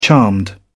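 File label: "charmed", British English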